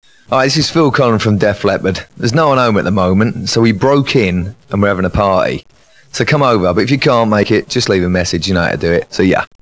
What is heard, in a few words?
Answeing Machine Message